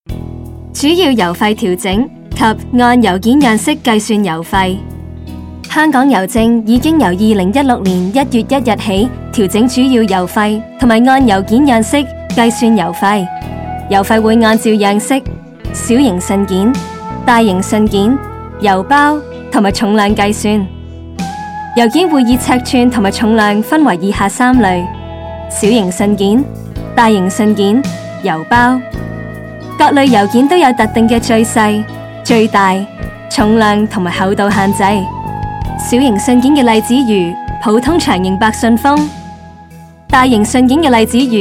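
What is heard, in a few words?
Post Office Cantonese music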